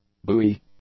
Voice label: "buoy", English; nouns

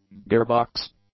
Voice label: "gearbox", English; nouns

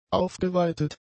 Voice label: "aufgeweitet", German; adjectives

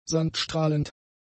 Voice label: "sandstrahlend", german; adjectives

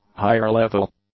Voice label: "higher-level", English; adjectives

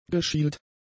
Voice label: "geschielt", German; adjectives

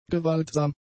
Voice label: "gewaltsam", German; adjectives

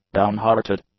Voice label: "down-hearted", English; adjectives

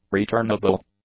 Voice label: "returnable", English; adjectives

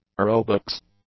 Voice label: "aerobics", English

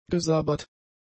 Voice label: "gesabbert", German; adjectives

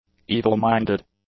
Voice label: "evil-minded", English; adjectives

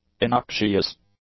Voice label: "innoxious", English; adjectives

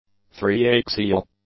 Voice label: "three-axial", English; adjectives

